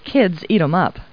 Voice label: people, kid